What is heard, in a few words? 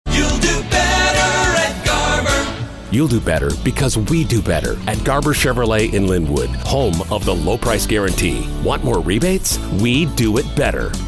Radio; Garber Chevy Linwood